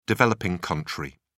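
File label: "developing country", British English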